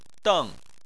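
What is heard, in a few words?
"deng" fourth tone, Chinese; Chinese Surnames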